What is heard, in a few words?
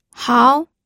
"hao2", pinyin audio